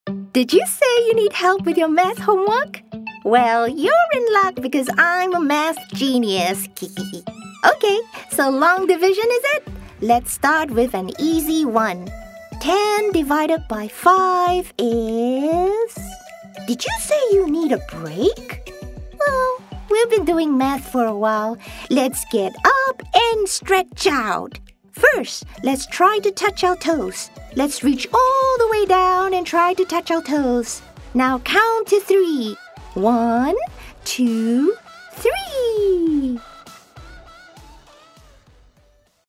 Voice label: Asian English

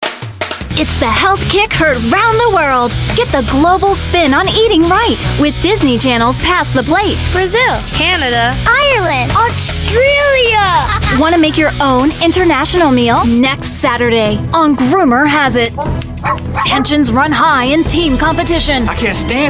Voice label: US English Promo

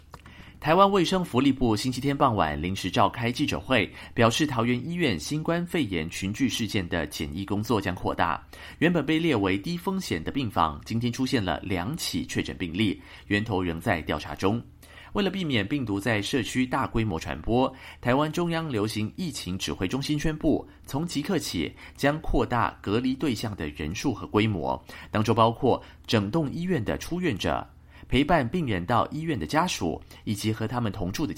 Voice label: Mandarin China News

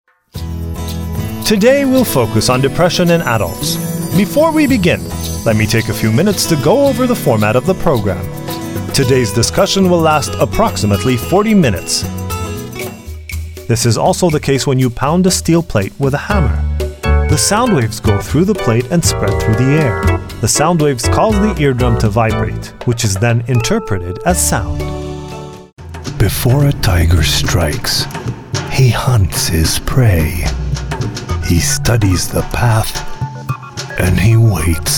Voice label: Arabic English

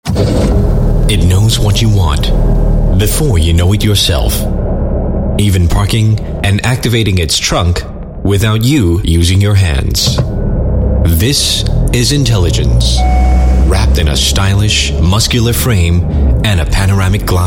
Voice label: Asian English Commercial

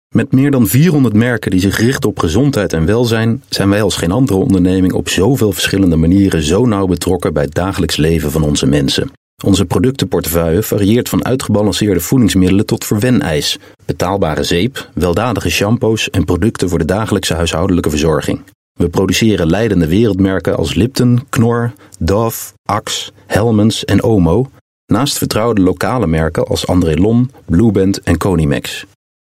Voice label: Dutch Narration Clean VO, Dutch Male

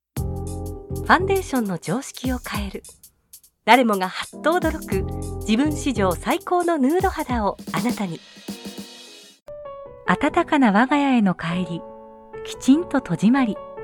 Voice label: Japanese Voice Reel, Japanese Female

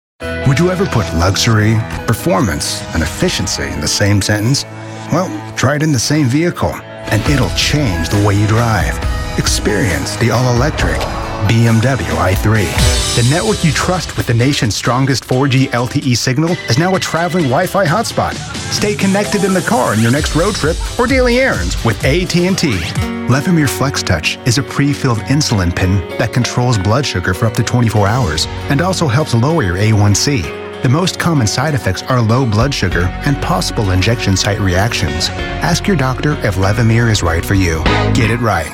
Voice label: US English Commercials

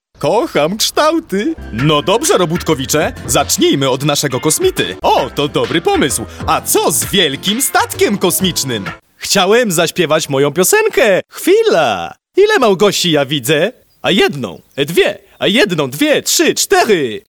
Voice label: Polish Male

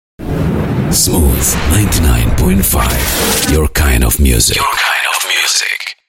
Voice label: Bahasa Indonesia Station ID

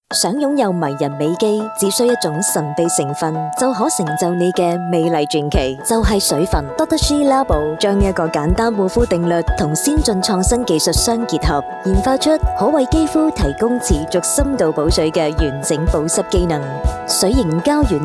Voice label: Mandarin Female Cantonese Commercial

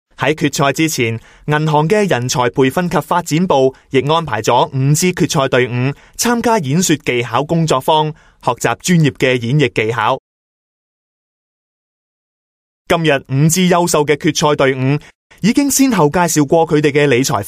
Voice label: Cantonese; HSBC

